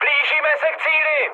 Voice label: near waypoint, speech cze, mood high; War Thunder

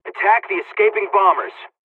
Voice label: dialogs polish; War Thunder

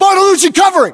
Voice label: response covering, battlechatter us

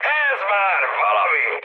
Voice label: trick, mood high, speech hun; War Thunder

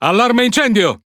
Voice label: Speech Alarms Fire Alarm, IT; World Of Warships

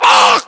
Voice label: pain, voiceovers english

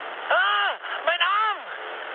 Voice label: damaged pilot, mood med, speech ge; War Thunder

